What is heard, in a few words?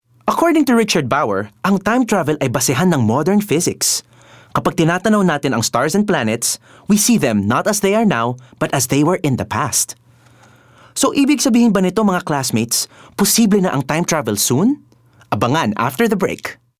Taglish (Friendly, Smart)